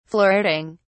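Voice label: "flirting", British English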